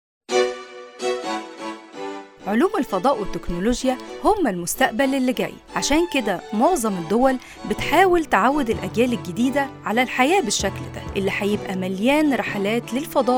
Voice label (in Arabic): نيوز; بوب نيوز